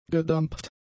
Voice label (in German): "gedampft", German; adjectives